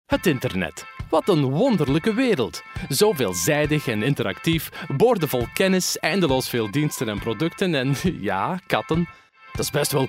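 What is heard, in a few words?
Flemish, male; voice over talent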